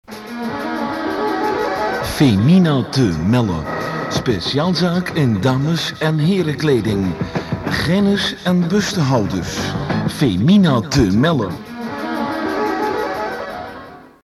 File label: commercial